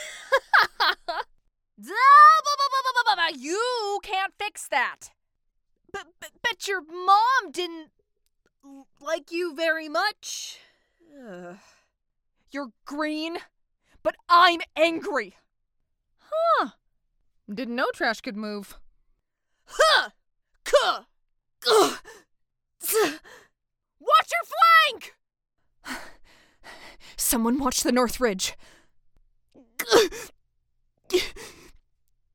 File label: Recruit Female